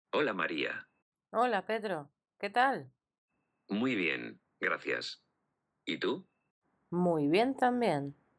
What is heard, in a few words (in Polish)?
dialog